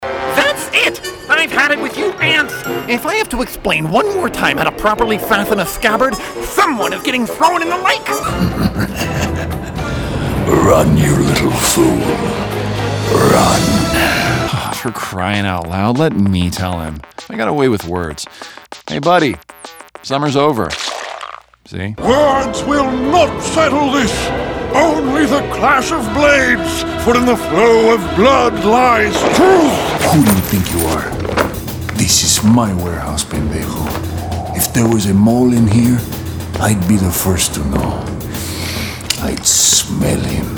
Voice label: animation